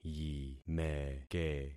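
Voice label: "yimeke", concatenated syllables; Affixing experiment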